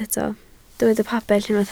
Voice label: "pabell", Welsh

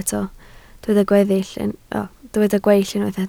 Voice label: "gweill", Welsh

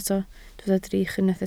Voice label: "drych", Welsh

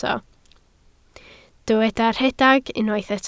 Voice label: "rhedeg", Welsh

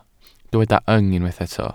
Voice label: "yng", Welsh

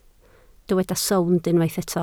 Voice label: "sownd", Welsh

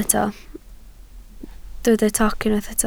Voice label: "toc", Welsh

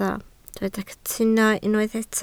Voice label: "cytuno", Welsh

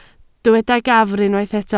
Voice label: "gafr", Welsh

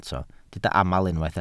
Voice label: "aml", Welsh

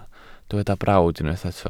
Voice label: "brawd", Welsh

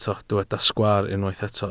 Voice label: "sgwaar", Welsh

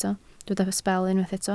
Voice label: "sbel", Welsh

